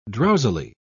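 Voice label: "drowsily", British English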